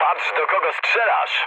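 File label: player friendly fire, mood low, Polish speech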